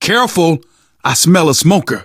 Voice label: heard smoker, survivor voice